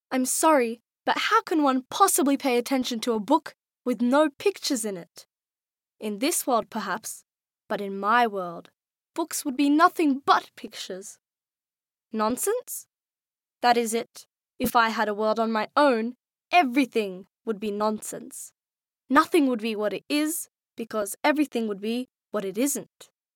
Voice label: animation, english